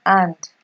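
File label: "hand" Nigerian Pidgin, female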